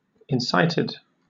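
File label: "incited", British English; pronunciations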